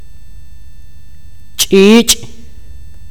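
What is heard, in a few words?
"pájaro", mopan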